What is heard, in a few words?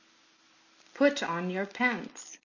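"Put on you pants", English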